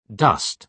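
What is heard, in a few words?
"dust", British English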